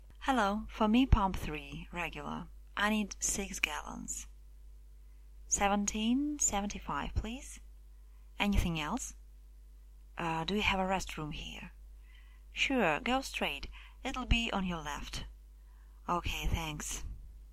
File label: dialog gas station